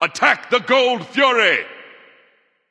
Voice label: vox attack; smite; ra; voicepack